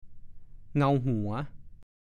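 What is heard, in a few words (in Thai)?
"เงาหัว" ภาษาโคราช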